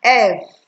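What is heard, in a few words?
"F", Nigerian Pidgin, female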